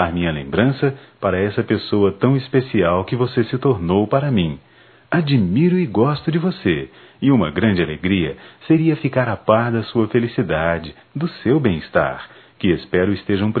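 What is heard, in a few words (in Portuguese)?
Páscoa Distante, Masculino; Telemensagens; Só Voz; Telemensagens 2017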